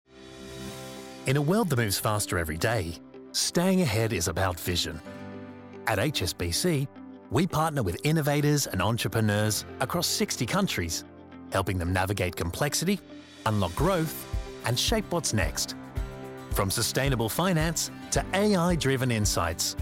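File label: NARRATION, Authentic Australian Voiceover; HSBC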